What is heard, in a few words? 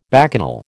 "bacchanal", American